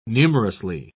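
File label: "numerously", British English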